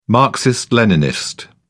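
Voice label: "marxist leninist", British English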